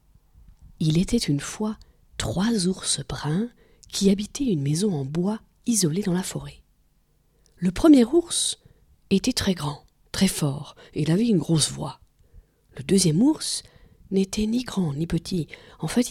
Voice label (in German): fr hoerspiel; les 3 ours